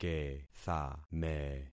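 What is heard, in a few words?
"kethame", concatenated syllables; Affixing experiment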